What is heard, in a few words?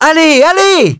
French - dialogue, Expressions